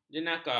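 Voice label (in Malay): "jenaka", Malay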